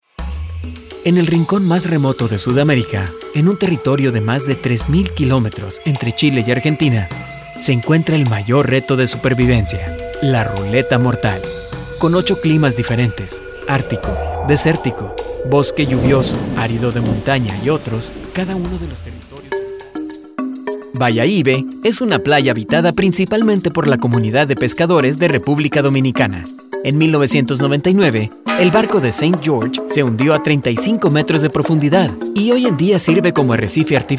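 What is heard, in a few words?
Narration, Spanish voice-over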